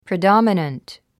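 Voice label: "predominant", English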